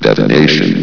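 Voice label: "detonation", male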